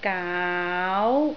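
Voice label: "gaau2", Cantonese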